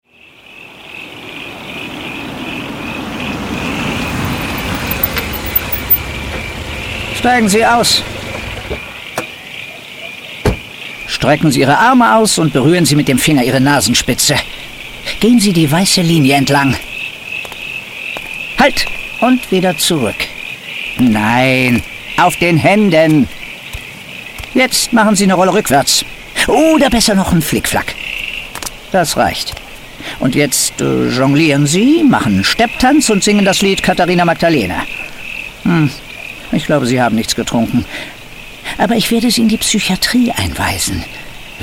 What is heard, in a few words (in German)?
Hoerspiel